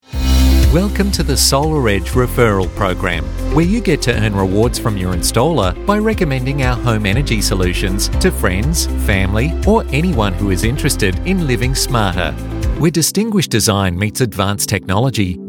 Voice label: english australian corporate videos